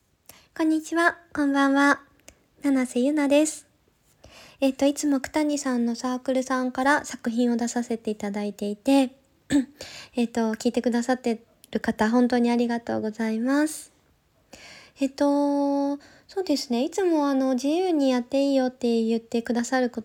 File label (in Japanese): フリートーク